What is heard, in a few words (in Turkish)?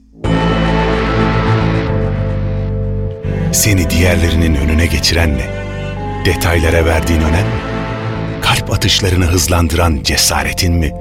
tanitim kurumsal imaj